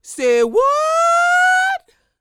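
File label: dialog, female spoken; ILIO; Vocal Planet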